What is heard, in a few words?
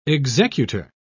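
"executer", British English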